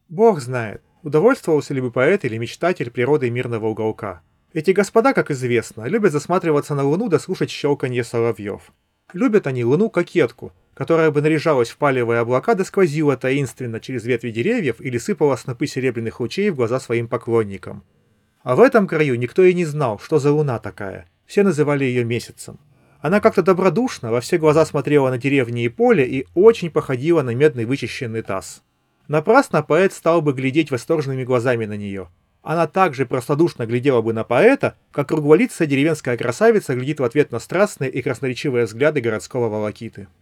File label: Recorder; Запись на профессиональный рекордер